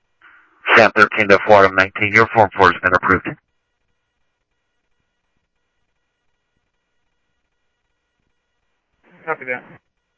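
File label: Mesa Police Department, scanner audio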